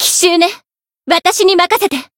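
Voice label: variable chant, Japanese; hisumena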